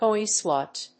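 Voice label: "Coinslot", English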